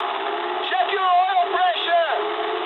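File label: damaged oil mood medium, speech English; War Thunder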